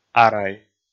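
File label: "арай", Komi, male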